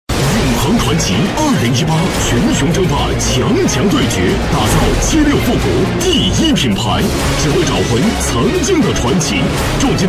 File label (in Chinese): 男 游戏宣传